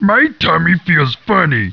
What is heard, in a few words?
annoyed; war2; orcs; basic orc voices; My tummy feels funny.